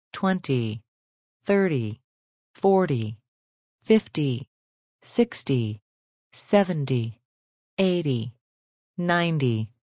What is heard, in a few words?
Female voice, counting numbers from 20-90, speaking; Talking Sound Effects; (human voice)